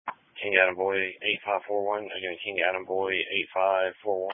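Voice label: Police Patrol Dispatch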